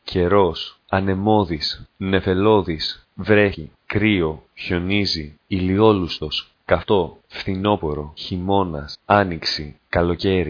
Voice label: greek; weather

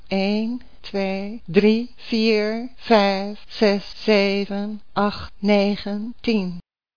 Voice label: dutch; numbers

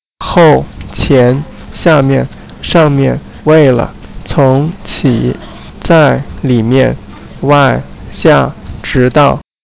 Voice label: chinese; words

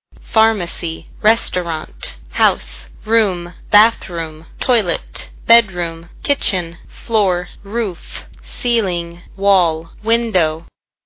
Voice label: english

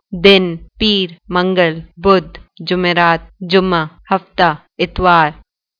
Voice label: Urdu days

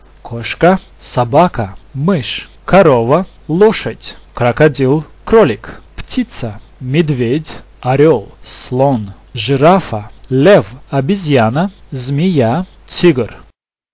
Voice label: russian animals

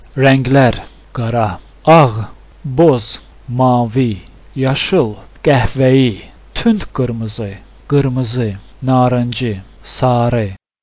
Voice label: azerbaijani; colors

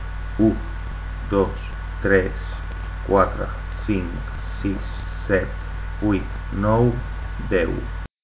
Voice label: catalan; numbers

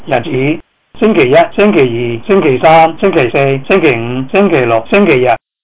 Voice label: cantonese days

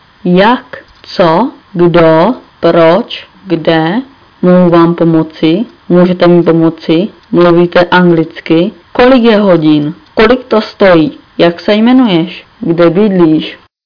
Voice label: czech; questions

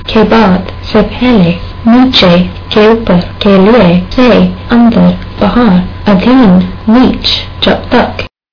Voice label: hindi words

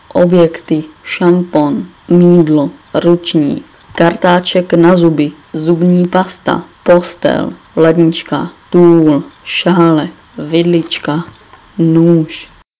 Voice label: czech

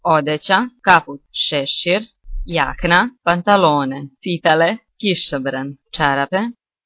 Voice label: serbian clothes

